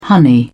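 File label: "honey", British English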